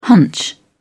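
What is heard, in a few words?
"hunch", English, British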